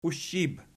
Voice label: "ushib", Archi